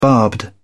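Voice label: "barbed", British English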